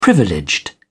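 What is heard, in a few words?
"privileged", British English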